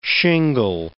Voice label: "shingle", words